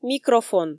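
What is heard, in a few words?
"микрофон", Komi, female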